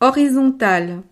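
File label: "horizontal", French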